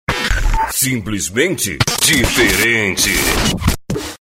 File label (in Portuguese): vinheta para dj e radio simplesmente diferente